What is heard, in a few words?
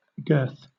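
"girth", British English; pronunciations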